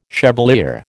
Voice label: "chevalier", American